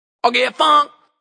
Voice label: Vocal, Funk vocal loop sample.; Music Production Loops